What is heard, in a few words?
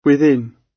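"within", British English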